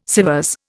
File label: "SÊvres", Indian